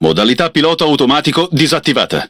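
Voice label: Italian speech Autopilot Disabled; World Of Warships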